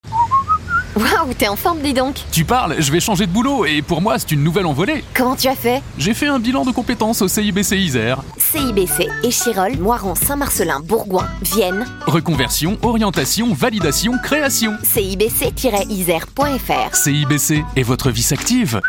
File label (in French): spot radio; CIBC Isere